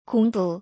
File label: "gongbu", korean